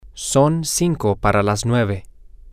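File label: its five to nine, Spanish, male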